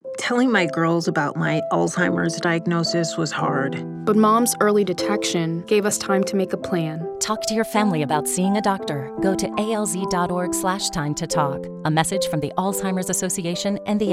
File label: English Radio